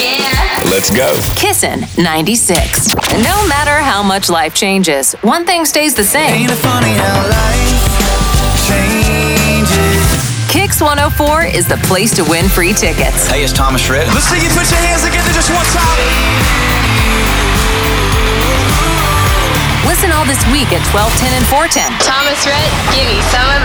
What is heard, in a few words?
Country Imaging